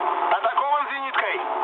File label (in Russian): damaged aa, mood low, speech ru; War Thunder